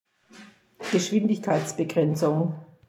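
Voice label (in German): "Geschwindigkeitsbegrenzung", German; Schwaben (Ulm)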